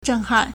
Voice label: "zhen4han4", Chinese